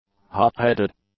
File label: "hot-headed", English; adjectives